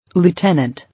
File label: "Lieutenant", English